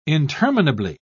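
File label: "interminably", British English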